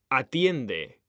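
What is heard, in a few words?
"atiende", Spanish, male; mekanta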